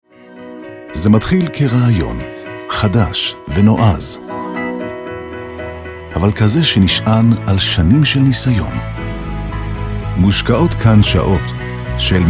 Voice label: Male 35 45 years Low